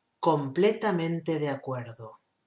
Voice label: STRONG AGREE, SPANISH